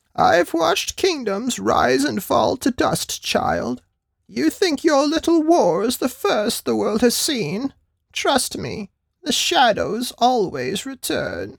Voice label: character Elderly Sage